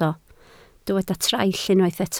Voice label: "traill", Welsh